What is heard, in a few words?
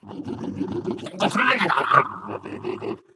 ballbot angry rant